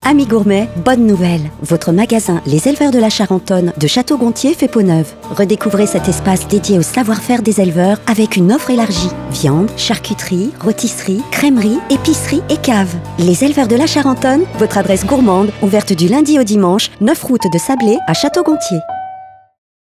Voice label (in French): Spot radio; Chateau Gonthier